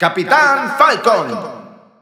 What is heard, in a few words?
Spanish Announcer; Captain Falcon; SSBU